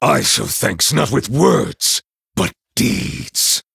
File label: Male Night Elf Thanks